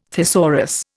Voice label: "thesaurus", Indian